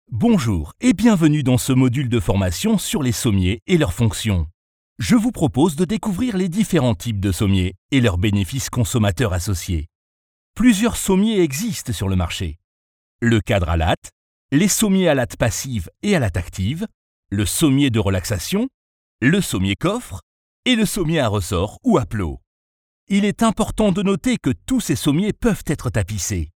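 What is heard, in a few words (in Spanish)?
e-learning, French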